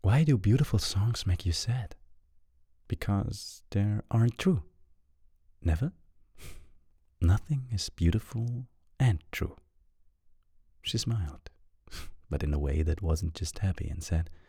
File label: english; Foer; Extremely Loud & Incredibly Close